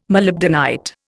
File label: "molybdenite", Indian